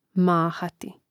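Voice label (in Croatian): "mahati", Croatian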